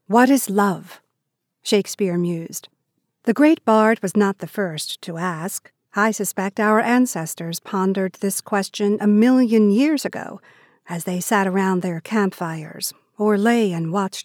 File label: voiceover audio books; new york